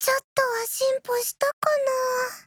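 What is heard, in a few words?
LEVEL UP, Japanese; bonee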